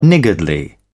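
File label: "niggardly", British English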